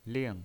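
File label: "ling", pinyin, Mandarin Chinese, fourth tone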